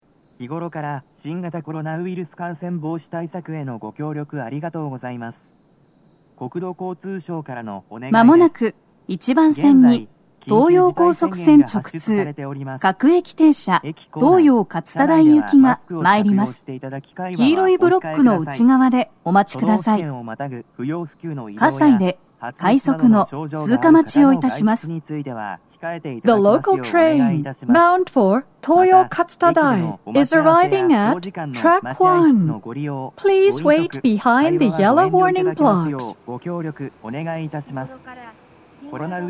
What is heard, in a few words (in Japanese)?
接近放送, 女声, コロナ啓発放送が流れ, 放送や発車サイン音にも被ります; 1番線 西船橋・東葉勝田台・津田沼方面; BOSE; 東京メトロ; 東西線; 西葛西